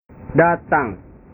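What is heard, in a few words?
"datang", Indonesian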